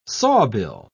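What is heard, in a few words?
"sawbill", English, British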